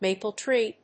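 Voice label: "maple tree", English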